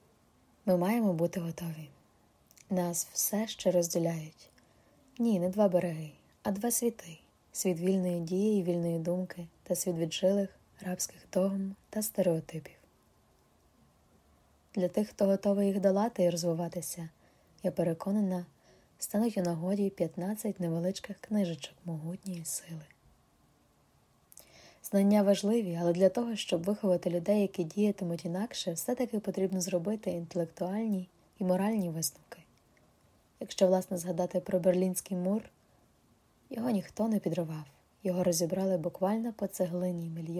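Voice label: Ukrainian, General; GoLocalise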